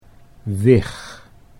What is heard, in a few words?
"wig", Dutch